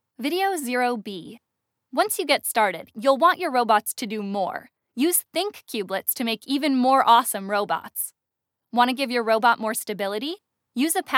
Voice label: explainer; Cubelets